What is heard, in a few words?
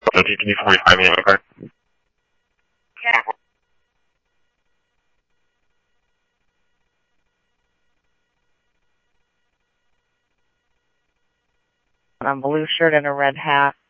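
Police Department, scanner audio; SCTSDLE PD - PD2; H-Scottsdale; ScottsdalePolice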